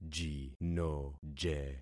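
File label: "jinoje", concatenated syllables; Affixing experiment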